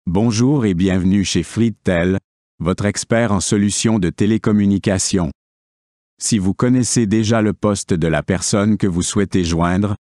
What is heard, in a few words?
DEEP VOICE